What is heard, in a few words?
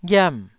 "ghiam4", Taiwanese